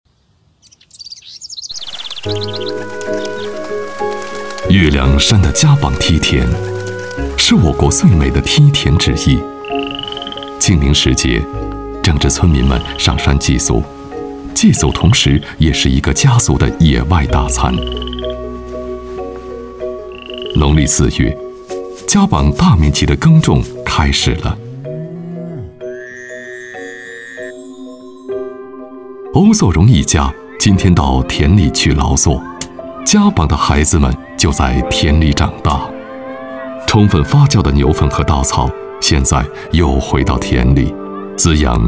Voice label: 男 专题 梯田; 月亮山的加榜梯田

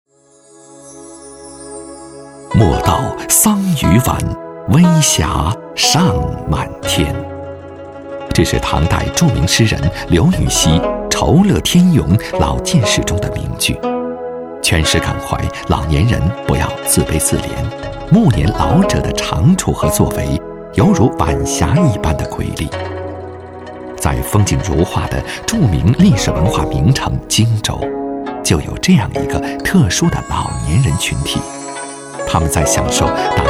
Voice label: 男, 专题; 莫道桑榆晚

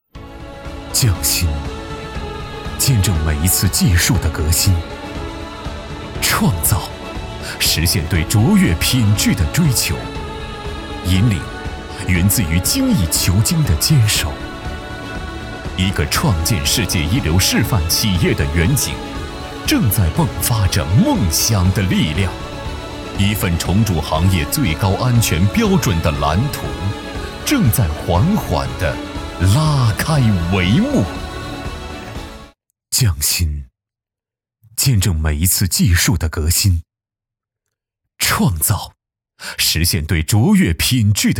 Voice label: 男 品质感 品牌宣传

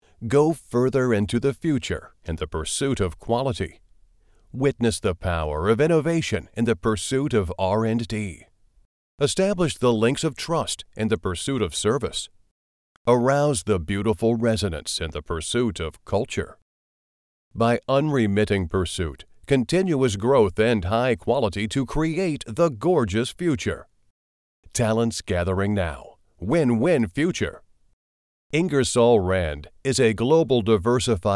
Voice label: 经济自贸区英文宣传配音

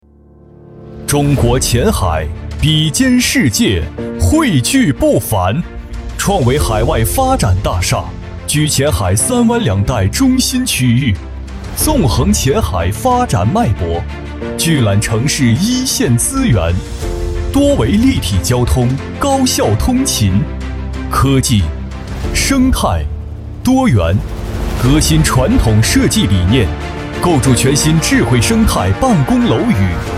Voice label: 男 招商宣传 大气激情; 创维发展大厦

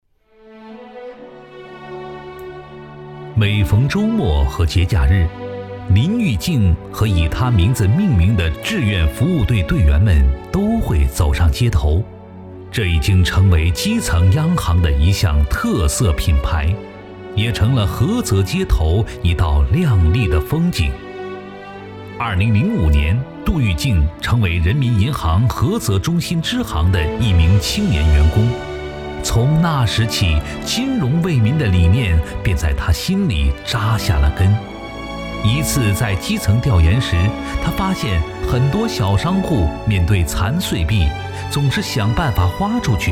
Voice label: 男, 人物纪录片

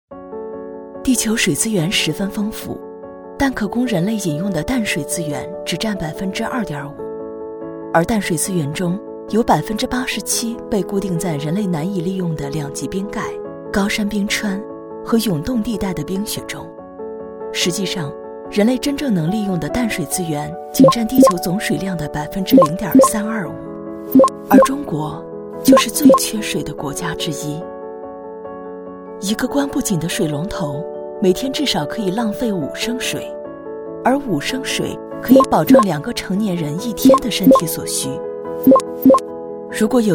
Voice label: 女 专题 节约用水

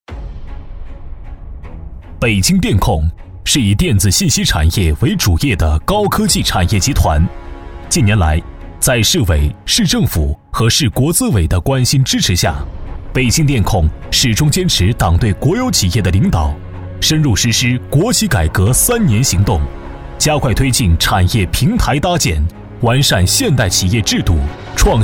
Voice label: 男, 宣传, 稳重磁性

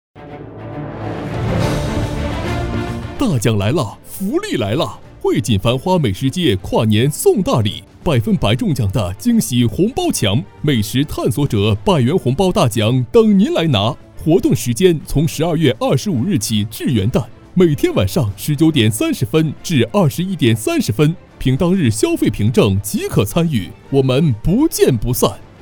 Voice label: 男 广告促销 美食街; 汇锦繁花美食街

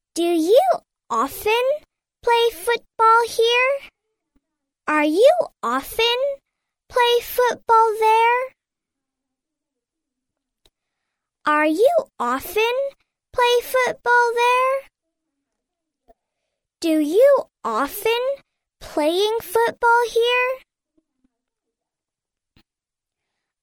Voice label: 外籍童声女 短句朗读

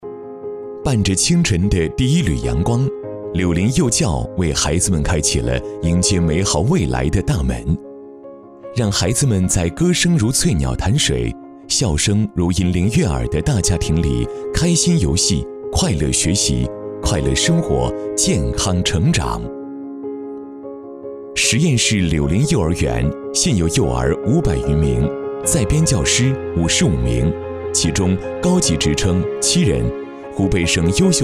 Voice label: 男 宣传片 幼儿园; 柳林幼儿园

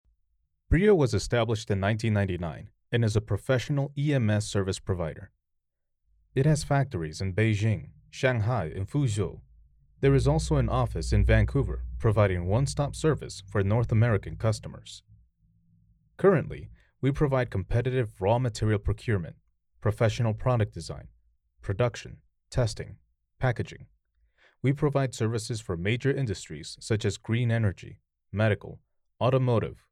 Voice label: 外籍英男 货运企业宣传片

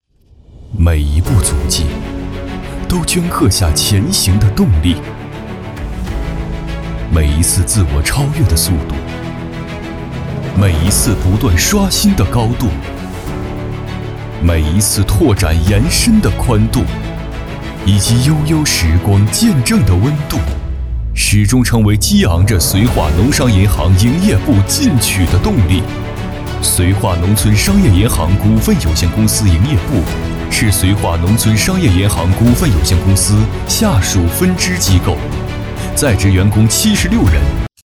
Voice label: 男 专题 大气沉稳; 绥化农商银行

